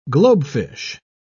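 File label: "globefish", British English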